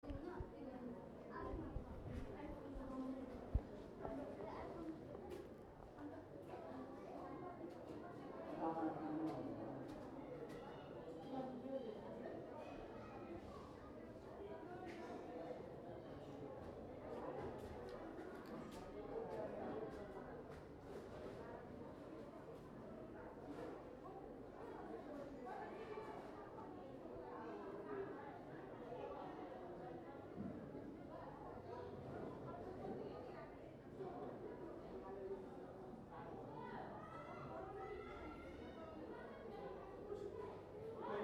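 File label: Ambient murmurs at airport, Kathmandu; Kathmandu Ambient sounds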